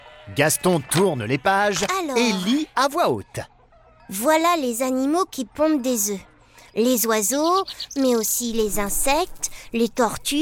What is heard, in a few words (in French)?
Voix garcon